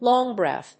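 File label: "long breath", English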